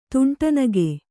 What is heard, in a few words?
"ತುಂಟನಗೆ", Kannada